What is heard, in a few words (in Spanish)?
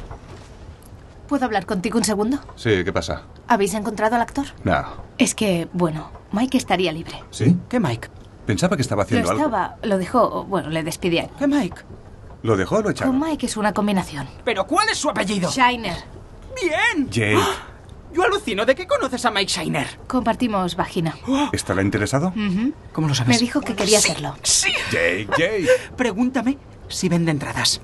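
doblaje España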